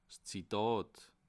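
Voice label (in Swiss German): "zitat", Bas Rhin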